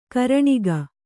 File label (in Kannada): "ಕರಣಿಗ", Kannada